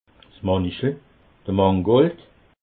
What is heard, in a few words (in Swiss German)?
"manichel", alsace